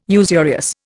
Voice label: "usurious", Indian